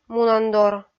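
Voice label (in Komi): "мунандор", Komi, female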